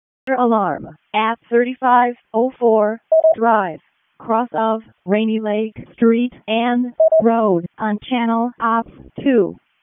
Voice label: RFD ALERT, p25; wake p25